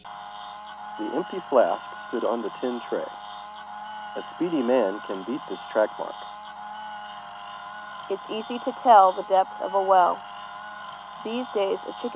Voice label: Mask mic